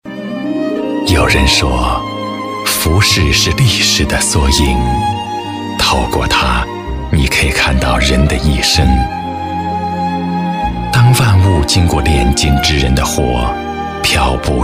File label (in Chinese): 男 专题